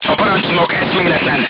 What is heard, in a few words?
voice message commander contused, crew tanks hungarian